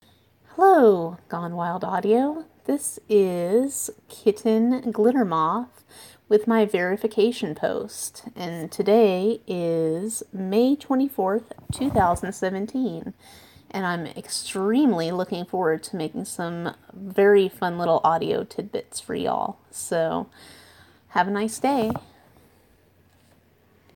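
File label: Verification, female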